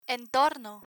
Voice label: "entorno", Spanish